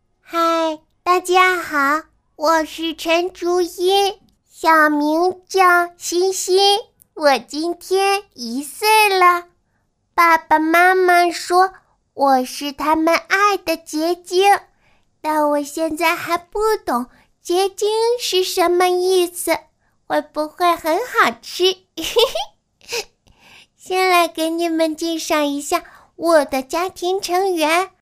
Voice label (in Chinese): 女 角色 两三岁女童